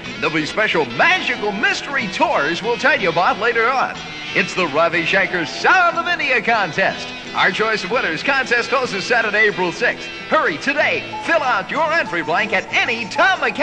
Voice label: promo sitar; 1968